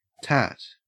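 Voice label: "tat", Australian English; pronunciations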